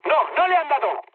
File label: bomb failure mood med; War Thunder